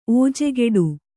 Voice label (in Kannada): "ಓಜೆಗೆಡು", Kannada